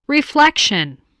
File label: "reflection", spelling voice